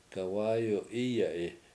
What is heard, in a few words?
horse is walking, Washo; gawAyu Iye7i